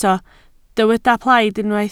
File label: "plaid", Welsh